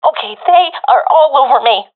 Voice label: scared, professional fem; vo; Counter Strike Model Pack; CS:GO